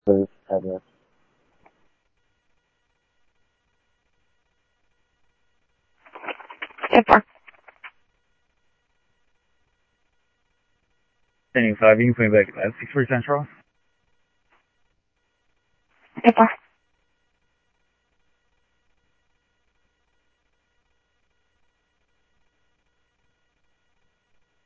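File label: Sheriff West County, scanner audio trunk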